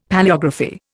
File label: "Palaeography", Indian; Dictionary